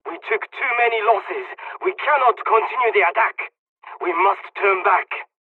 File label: dialog, hungarian; War Thunder